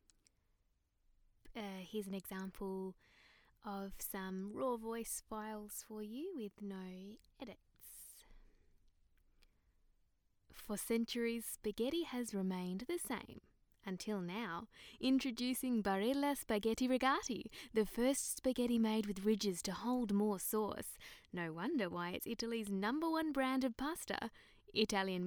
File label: RAW retail MOH home studio examples